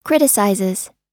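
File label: "criticizes", US English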